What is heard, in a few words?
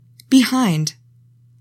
"BEHIND", english; 3000 words